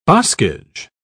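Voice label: "boscage", British English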